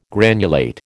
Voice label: "granulate", American